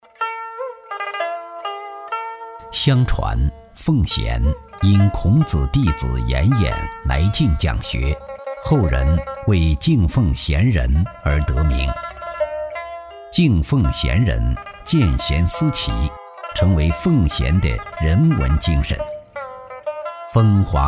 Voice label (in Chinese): 男 古韵 厚重, 磁性浑厚抒情; 专题片; 奉贤的前世今生; 国语男声配音; 男9磁性浑厚抒情